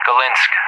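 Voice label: "Gelendzhik", English; Callsign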